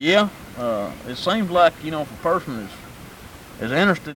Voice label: Conversation with 30 year old white male Pettigrew Arkansas, american english dialect recordings